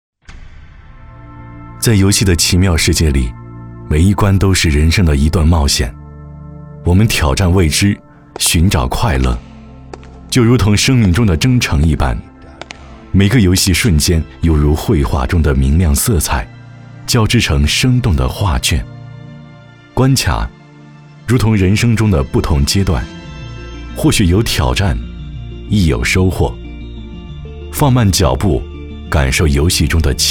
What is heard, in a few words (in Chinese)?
男 广告; 在游戏的奇妙世界